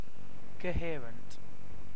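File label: "coherent", British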